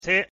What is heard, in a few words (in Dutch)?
"the", Interlingua; thee-in-andere-talen